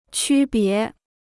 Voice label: "区别", Chinese